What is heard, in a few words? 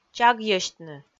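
"чагйӧсьтны", Komi, female; kpv audio dict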